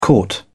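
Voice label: "caught", British English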